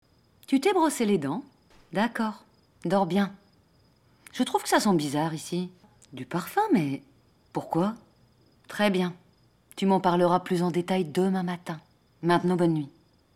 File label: Character, FRENCH, FEMALE